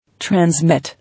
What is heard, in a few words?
"Transmit", English